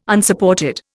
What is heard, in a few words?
"Unsupported", Indian